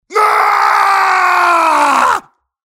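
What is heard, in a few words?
mobster pain critical death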